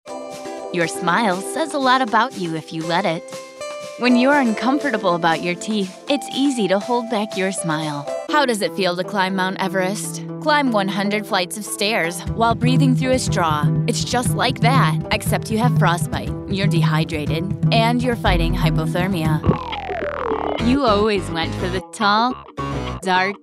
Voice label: Commercial Female; Commercial Voiceover, TV and Radio

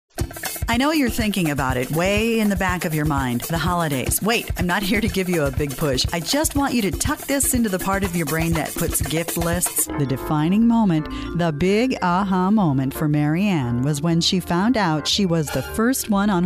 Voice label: Commercial Female; commercial voiceover

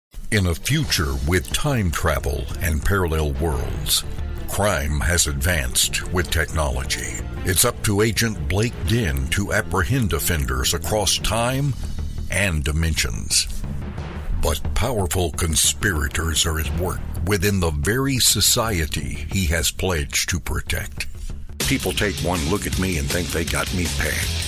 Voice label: Commercial Male; commercial voiceover

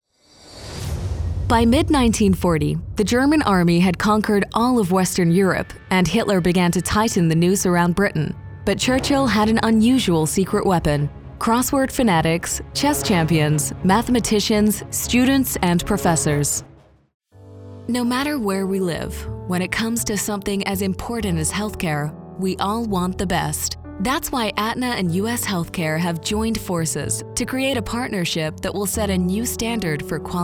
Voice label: Narration Female

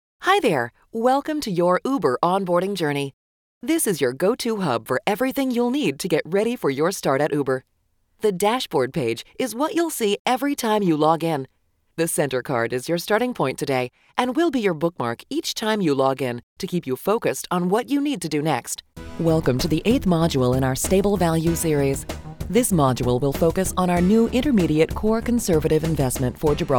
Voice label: corporate narration

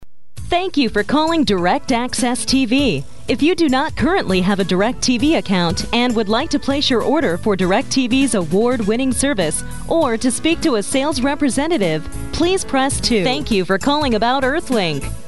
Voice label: Phone Hold Female

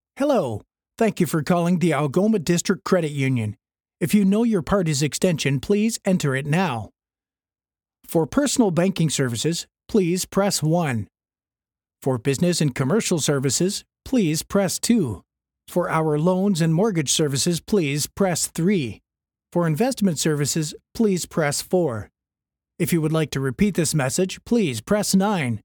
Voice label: Phone Hold Male

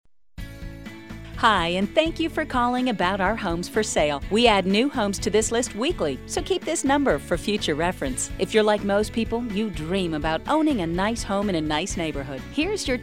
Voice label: phone hold, commercial voiceover

